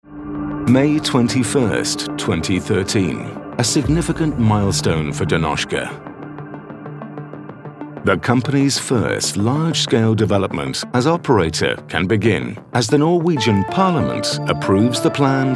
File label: uk corporate